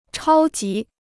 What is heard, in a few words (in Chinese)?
"超级", Chinese